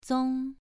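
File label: "zong2", Chinese pronunciation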